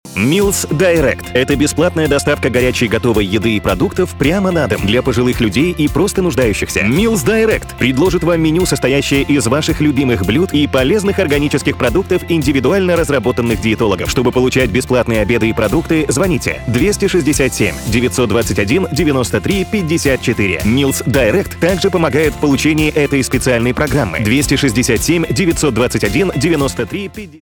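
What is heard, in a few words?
Russian, male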